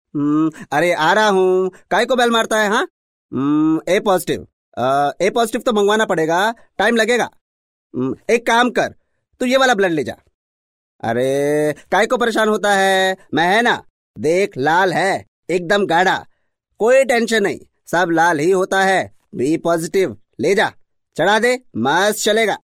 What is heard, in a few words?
male voice